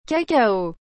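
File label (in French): "cacao", French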